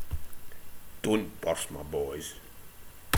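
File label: "burst ma baws", Scottish slang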